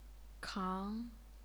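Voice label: "kang", Chinese, second tone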